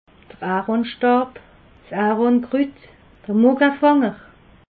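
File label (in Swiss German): "aronstab", Alsatian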